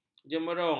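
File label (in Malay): "jemerung", Malay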